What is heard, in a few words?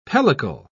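"pellicle", English, British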